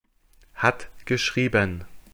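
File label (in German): "hat geschrieben", German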